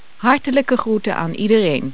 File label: dutch; Golden Record